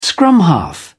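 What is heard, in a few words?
"scrum half", English, British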